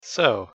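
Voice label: "so", English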